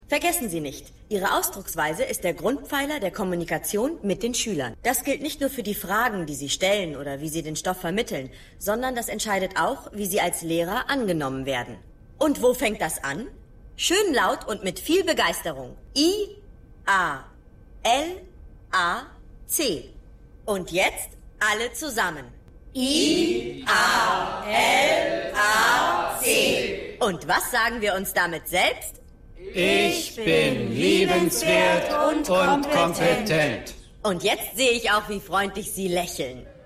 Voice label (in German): Seminarleiterin; The Wire